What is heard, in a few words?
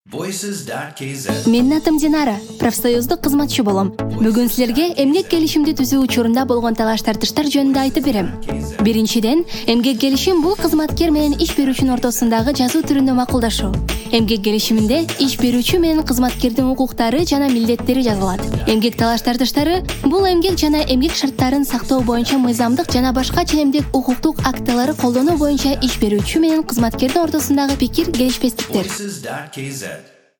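Kyrgyz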